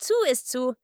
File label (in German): Zu ist zu Lock Chime; Tesla